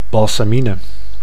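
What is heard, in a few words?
"balsamine", Dutch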